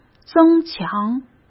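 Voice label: "增强" Mandarin Chinese; hsk; chinese-flashcards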